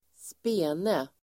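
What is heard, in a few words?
"spene", Swedish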